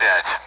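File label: Speech Sound Russian Common AWACS Digits; DCS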